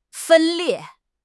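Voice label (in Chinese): "分裂", Mandarin Chinese; HSK6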